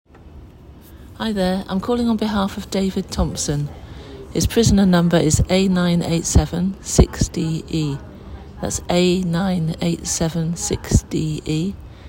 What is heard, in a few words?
example of voicemail